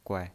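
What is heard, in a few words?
"guai", Mandarin Chinese, neutral tone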